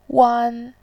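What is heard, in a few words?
"wan", Mandarin Chinese, tone 1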